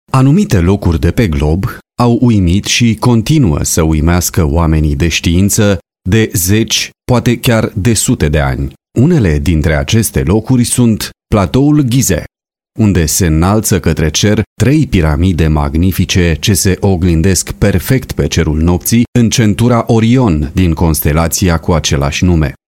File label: romanian